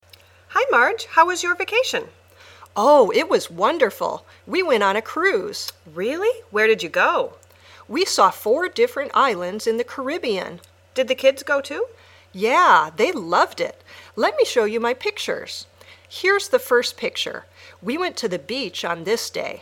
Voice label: Dialog